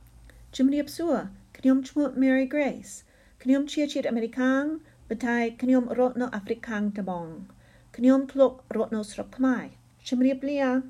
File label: audio file speaking khmer